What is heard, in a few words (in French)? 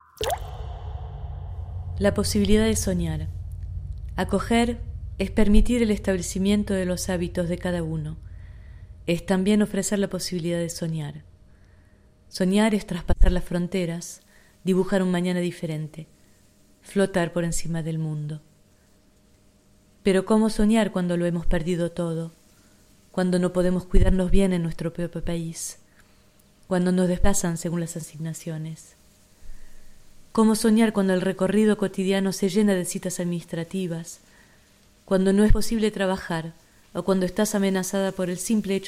poesie en espagnol; l'hospitalite ou la possibilite de rever dans toutes les langues